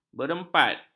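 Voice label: "berempat", Malay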